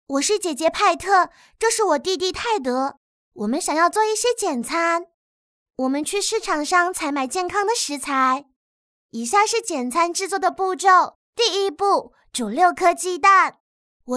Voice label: 聪明温柔少女音台湾腔

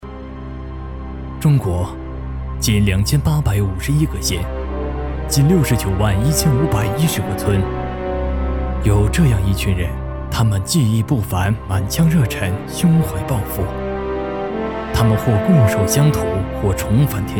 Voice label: 电影介绍片头武侠讲故事

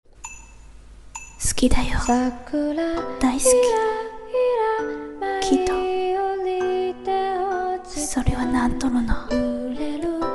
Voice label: 日语文案配音

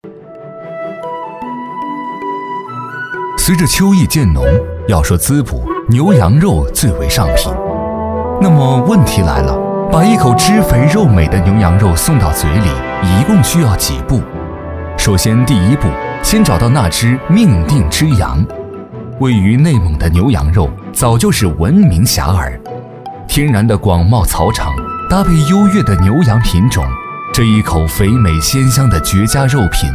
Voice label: 舌尖美食纪录牛羊肉

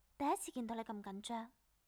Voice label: 管家 粤语 女

